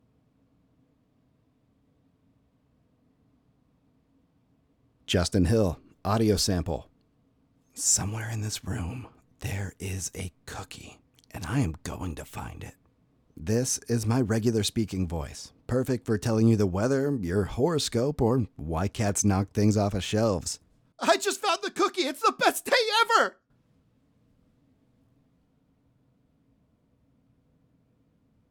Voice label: Home Studio Sample